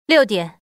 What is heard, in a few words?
woman lose; longhudazhan